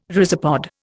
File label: "rhizopod", Indian